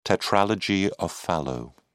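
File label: "tetralogy of fallot", English, British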